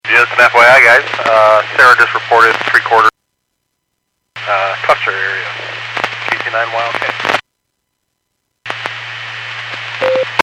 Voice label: Skywarn 146.67; Stevens Point

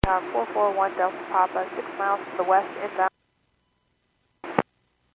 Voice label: Airports 122.7, Radio; KSTE

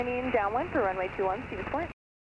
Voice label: Aviation 122.7; KSTE

